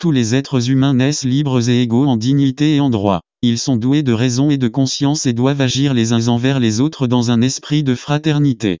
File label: french speech